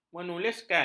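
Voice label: "menuliskan", Malay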